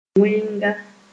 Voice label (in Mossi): "wẽnga", Moore